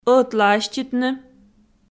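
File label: "ӧтлааститны" Komi, female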